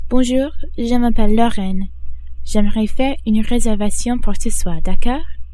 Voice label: au restaurant, French

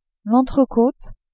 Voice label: "entrecote", french

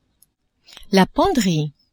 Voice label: "penderie", French; maison

